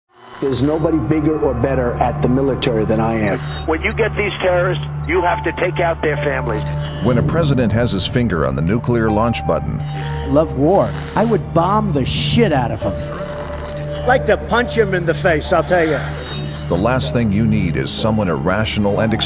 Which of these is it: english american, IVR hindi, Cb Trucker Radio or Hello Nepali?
english american